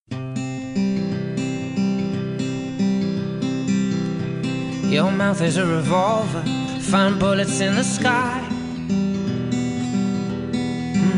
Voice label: hom filha masc

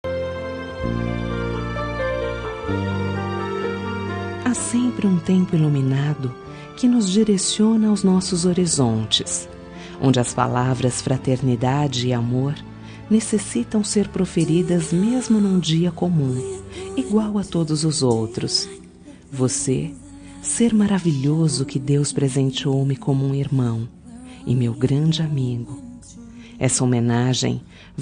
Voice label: homem irmao fem

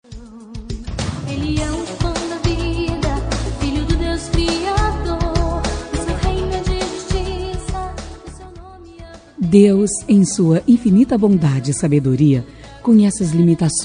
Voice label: nora fem

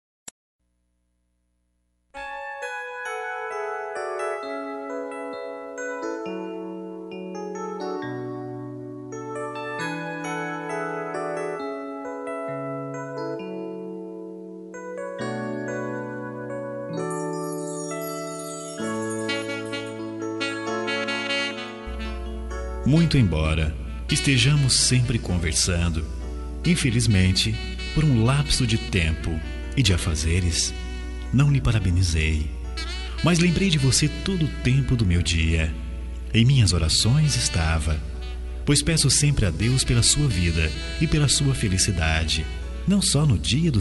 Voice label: masc

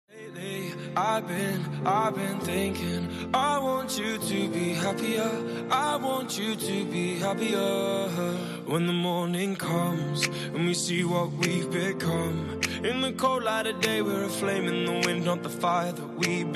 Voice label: fem; primo

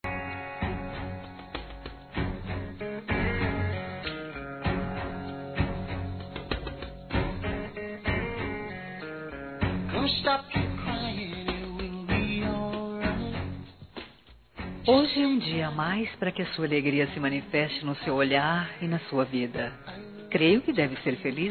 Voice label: genro fem

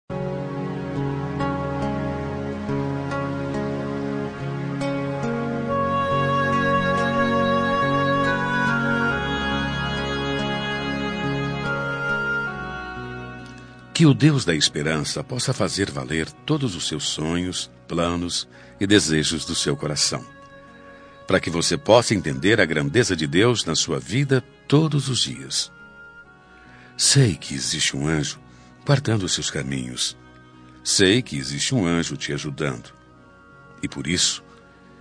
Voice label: religioso anjos masc

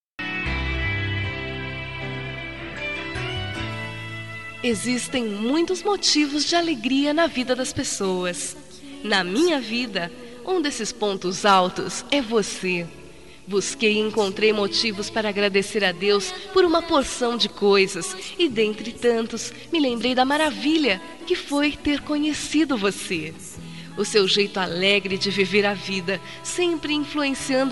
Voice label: Romantica fem; evangpelica

